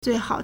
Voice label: "zui4hao3", Chinese